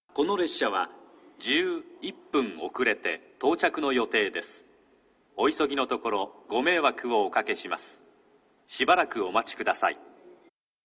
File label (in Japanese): 新鳥栖駅 11番のりば遅延放送・遅れ約11分（つばめ 博多行き）; ※案内放送部分はカットしております。; 九州新幹線SIRIUS（シリウス）放送